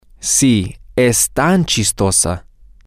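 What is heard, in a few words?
yes she is so funny, Spanish, male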